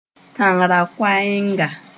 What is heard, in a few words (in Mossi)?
"tãng-ra-koɛɛnga", moore